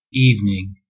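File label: "evening", US English; pronunciations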